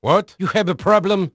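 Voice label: Dutch male, voice; Pirates Of The Caribbean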